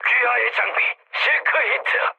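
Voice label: Korean bailout mood low, speech; War Thunder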